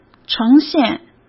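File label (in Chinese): "呈现", Mandarin Chinese; chinese-flashcards; hsk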